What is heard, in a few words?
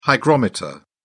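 "hygrometer", British English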